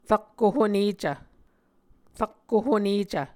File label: "rakko honecv", Muscogee